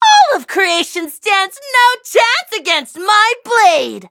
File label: battle, en; cookie run